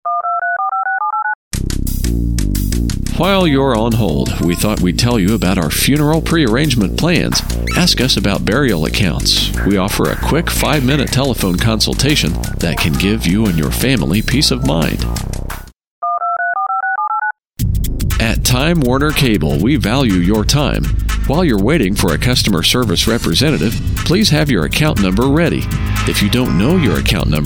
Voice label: voicemail